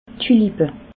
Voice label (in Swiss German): "tulipa", alsace